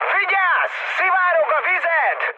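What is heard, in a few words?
Hungarian damaged water mood high, speech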